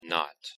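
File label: "not", English pronunciation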